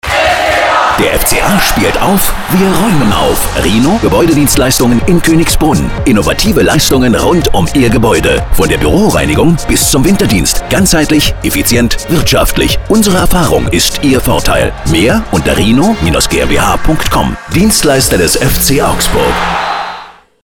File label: radiospot; RiNo GmbH; hitradio rt1